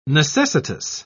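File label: "necessitous", British English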